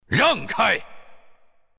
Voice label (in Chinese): attack, zh; Dota 2